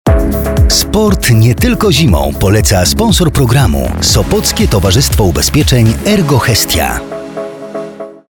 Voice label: Male VO Commercial